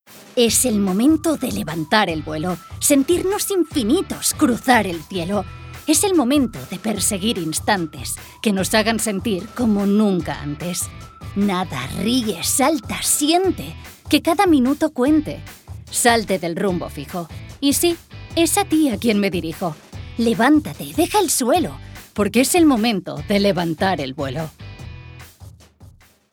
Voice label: female spanish commercial; ideasonora